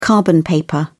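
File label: "carbon paper", British English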